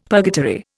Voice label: "purgatory", Indian; Dictionary